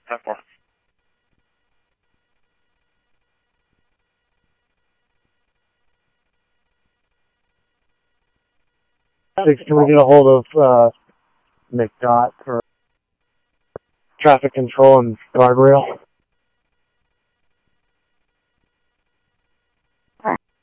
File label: Scottsdale PD Scottsdale Police, scanner audio; SCTSDLE PD - PD2; ThompsonPeakHigh